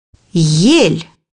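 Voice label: "fir trees", Russian; bukvar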